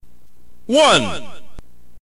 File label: narrator